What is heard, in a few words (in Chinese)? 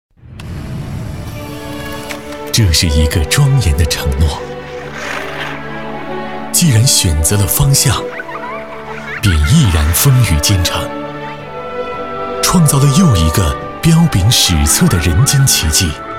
男 宣传片 山河锦绣; CCTV1中央广播电视总台